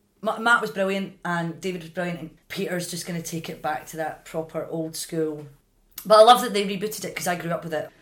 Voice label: AYR FEMALE